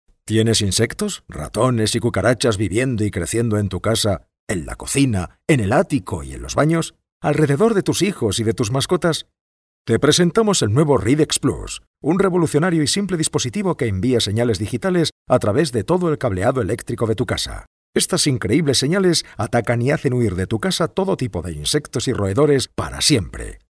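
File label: Spanish, male, commercial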